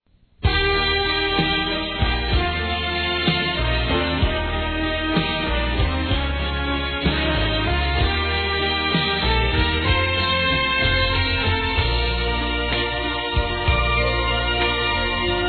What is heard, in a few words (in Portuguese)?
Aniversário Pai Infantil Feminino; Telemensagens